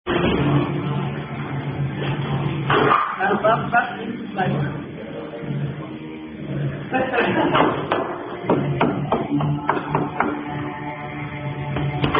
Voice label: Baron Baff, German Women Playing Are You A Cardinal Drinking Game; German Women Playing Are You A Cardinal Drinking Game II